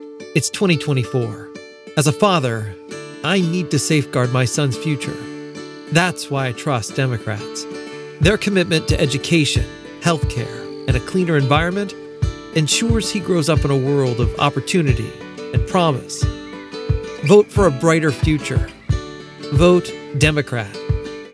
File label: Mission Driven Political Ad VO